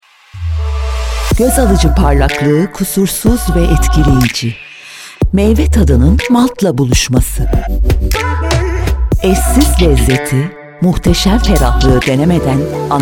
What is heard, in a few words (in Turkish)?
reklam; malt